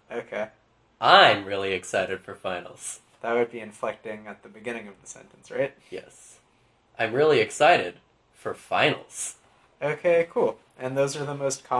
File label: voice inflection